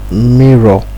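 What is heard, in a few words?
"mirror", Nigerian Pidgin, male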